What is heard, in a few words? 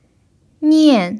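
"nian" fourth tone, chinese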